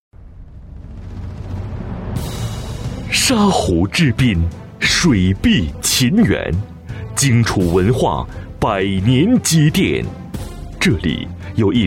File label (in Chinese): 专题 企业 稳重, 男国; 武汉文化公司